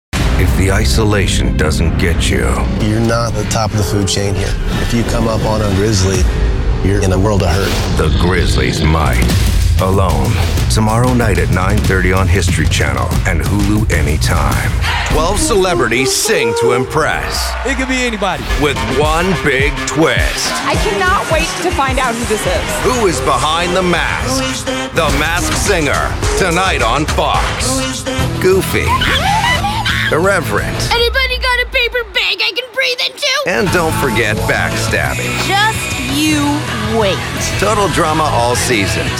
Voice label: Promo